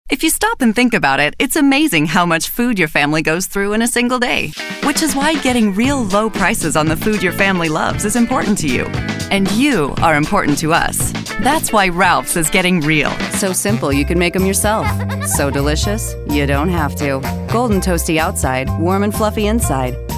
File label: Commercial